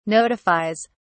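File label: "notifies", British English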